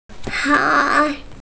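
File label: "gei3", Mandarin Chinese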